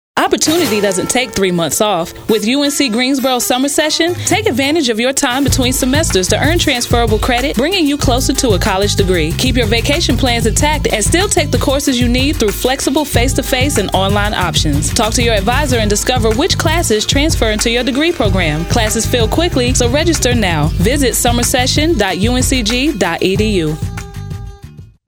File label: female; UNCG